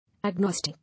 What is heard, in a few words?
"Agnostic", English